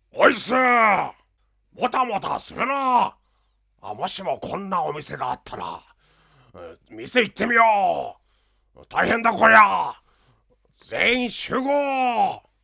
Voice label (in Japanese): CM スパイスボイス 国民的コメディアンリーダー系; 鬼滅のカラス